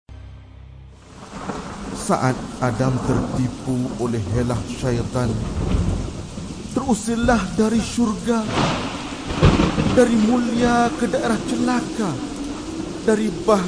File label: Narration